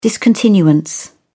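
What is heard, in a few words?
"discontinuance", English, British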